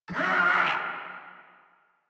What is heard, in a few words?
Struggle Crewmen; Warframe; Corpus Crewmen; Crewman D